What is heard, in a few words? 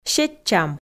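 "сетчам" Komi, female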